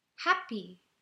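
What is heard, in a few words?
"happy", English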